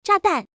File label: female